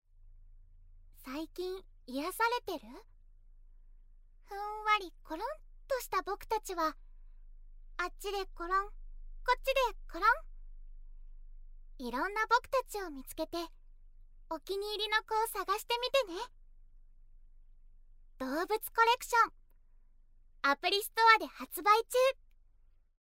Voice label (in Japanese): ナレーション